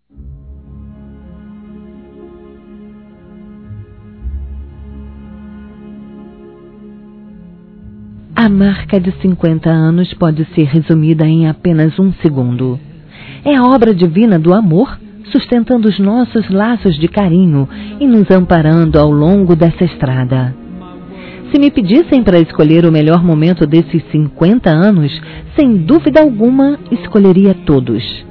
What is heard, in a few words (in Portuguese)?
BODAS DE OURO MARIDO F; Aniversários; ANIVERSARIO romantico; fem